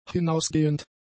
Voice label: "hinausgehend", German; adjectives